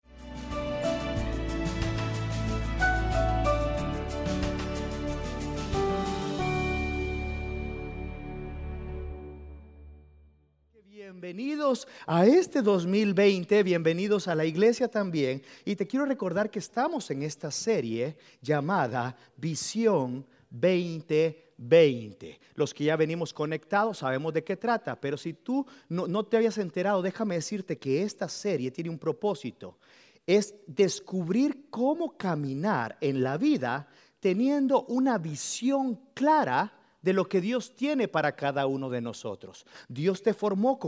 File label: Spanish Sermon